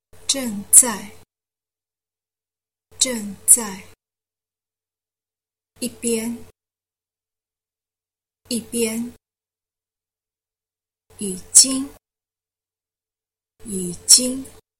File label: Adv phrases